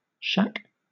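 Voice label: "shack", British English; pronunciations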